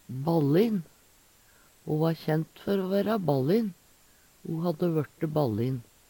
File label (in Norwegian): ballin, Numedalsmål; Ho hadde vørte ballin.; Adjektiv